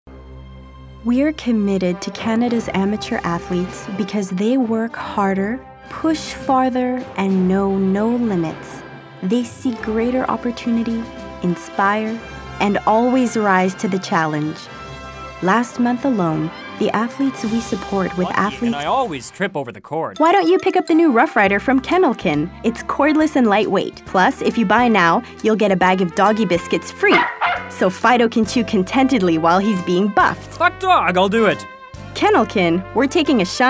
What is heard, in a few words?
Voice ENGLISH; French